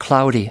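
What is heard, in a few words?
"cloudy", US English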